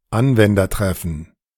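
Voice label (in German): "Anwendertreffen", German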